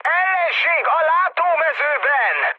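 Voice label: Hungarian enemy detected mood high, speech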